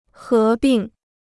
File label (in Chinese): "合并", Chinese